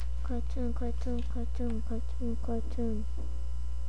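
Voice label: "cartoon", spoken word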